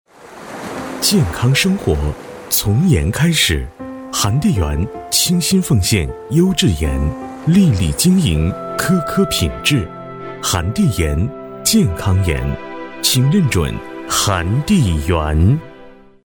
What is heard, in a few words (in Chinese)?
广告; 韩帝盐